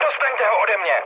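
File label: aircraft damaged, Czech, mood med; speech; War Thunder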